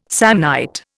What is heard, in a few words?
"Samnite", Indian